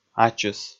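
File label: "ачыс", Komi, male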